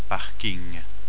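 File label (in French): "parking", French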